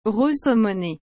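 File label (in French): "rose saumoné", French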